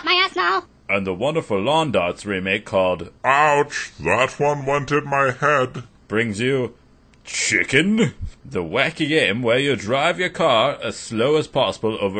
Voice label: Commercial Chalk; fake commercials